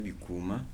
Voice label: "fruit", Kibembe